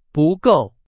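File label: Chinese; hsk2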